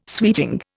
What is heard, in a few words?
"sweeting", Indian